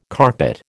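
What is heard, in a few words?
"carpet", American English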